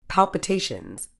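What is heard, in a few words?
"palpitations", medical English, British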